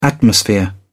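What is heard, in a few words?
"atmosphere", English, uk British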